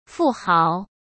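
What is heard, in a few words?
"富豪", Mandarin Chinese; hsk; chinese-flashcards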